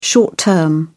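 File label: "short-term", British English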